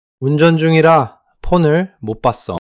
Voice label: neutral